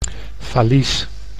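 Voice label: "valies", Dutch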